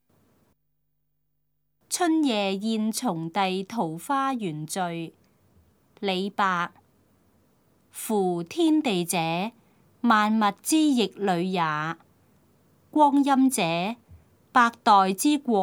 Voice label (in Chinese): cantonese; 春夜宴從弟桃花園序; 粵語